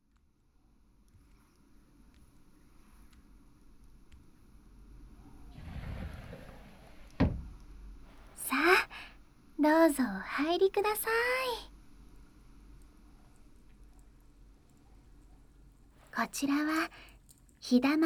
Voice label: 耳かき(右耳), ASMR; 日だまり白柴犬の間; やおよろ温泉郷 ～白柴犬神ひなたの過保護なあなた専用ぽかぽかお姉さんの溺愛ご奉仕～

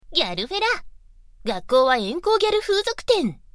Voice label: タイトルコール, ASMR

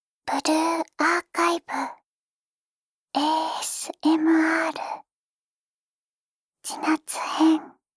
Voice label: タイトルコール

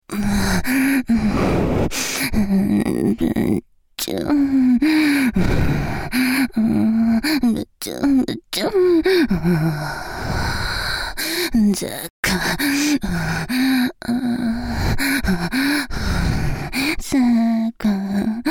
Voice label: アナル舐め手コキ2連射 左 淫語連呼, ASMR; ギャルフェラッ!!学校は援交ギャル風俗店ッ!!

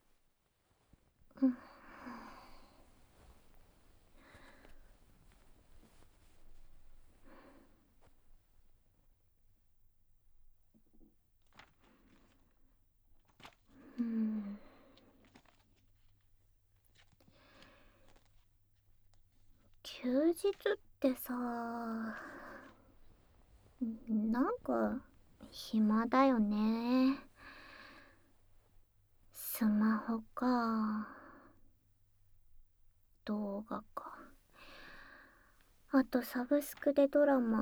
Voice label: ソファーでごろごろ お昼の癒やされマッサージ&耳かき, ASMR; 【フォーリーサウンド】耳かきASMR✕裏オプ援交～人気耳かき店の看板JKとリピ限裏メニューで恋人同棲生活してみたら～

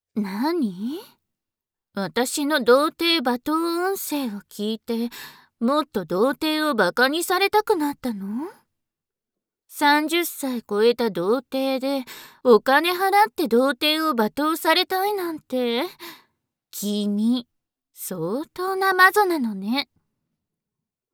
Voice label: 罵りボイス; 可哀想だから罵りボイス売ってあげる

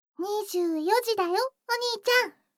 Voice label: 時報 24時; 全肯定ロリママのあまあまでえっちな添い寝; いもおかASMR

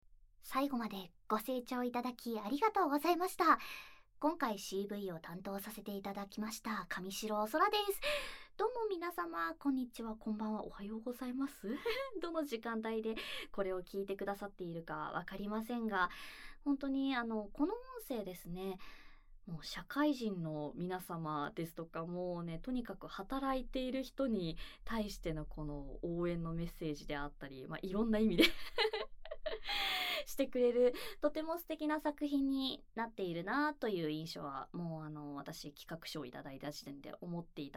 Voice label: フリートーク; KU100; 後輩彼女と甘々おふぃすラブ

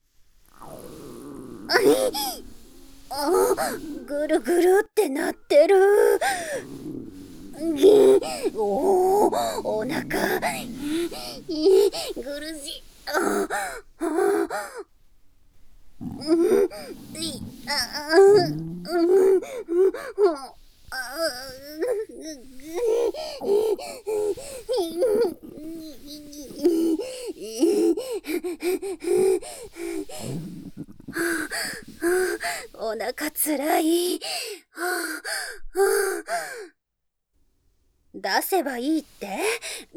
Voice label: 腹痛＆イラマチオシーン 効果音入り

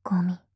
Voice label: "ゴミ" 極近 右, ASMR

